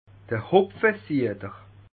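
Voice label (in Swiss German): "hopfesieder", Alsatian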